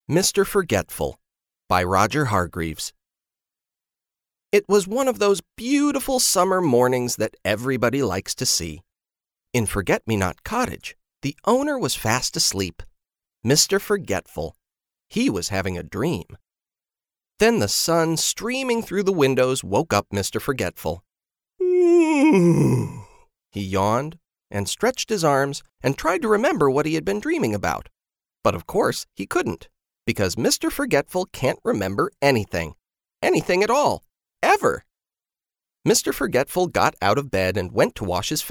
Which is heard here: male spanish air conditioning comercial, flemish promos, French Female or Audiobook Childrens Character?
Audiobook Childrens Character